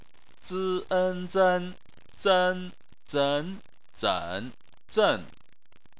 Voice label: "zen", chinese